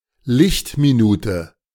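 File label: German "Lichtminute"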